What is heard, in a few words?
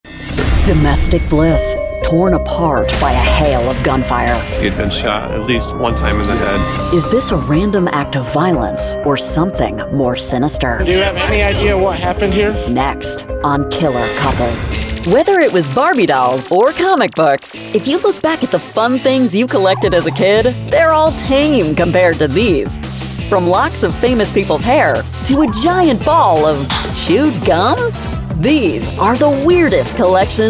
In Show Documentary